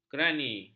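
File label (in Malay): "kerani", Malay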